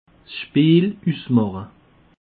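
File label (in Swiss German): "speelusmache", Alsatian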